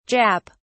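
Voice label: "jap", British English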